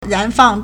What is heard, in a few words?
"ran2 fang4", Chinese